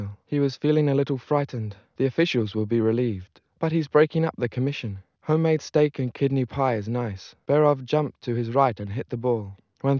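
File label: male, 130 ms, 5 cm, source; SiSEC11; underdetermined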